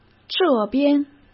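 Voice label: "这边", Mandarin Chinese; hsk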